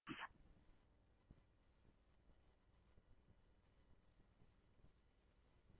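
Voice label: scanner, Phoenix Police Department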